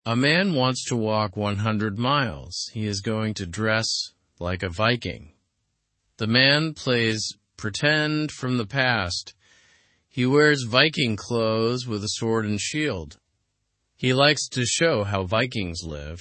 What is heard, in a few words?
learn english through news beginner slow; viking re enactor to walk 100 miles